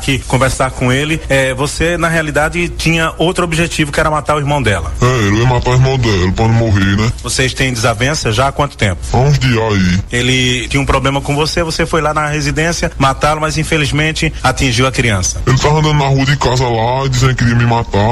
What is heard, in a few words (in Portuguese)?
Entrevista Menor